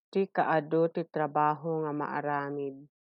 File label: Ilocano; amounts of work to be done - ti kaadu ti trabaho nga maaramid